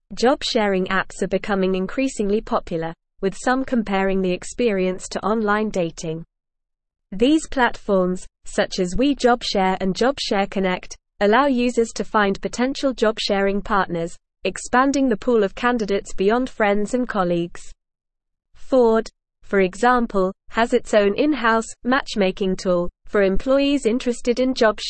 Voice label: Advanced NORMAL Reading, English; English Newsroom